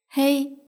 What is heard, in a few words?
"hei", Chinese; hsk2